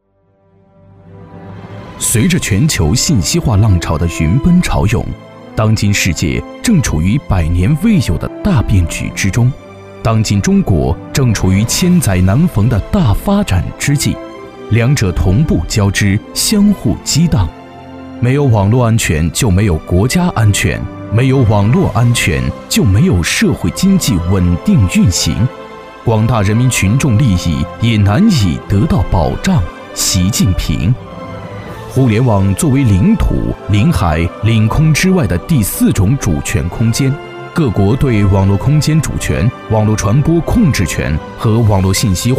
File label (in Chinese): 男 专题纪录; 央广视讯